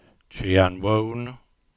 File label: Cornish; Chun; Choone